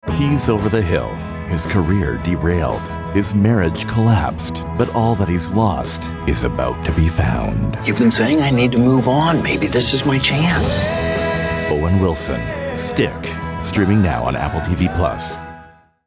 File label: PROMO, Warm · Comforting · Optimistic; Promo Demo Clips; Stick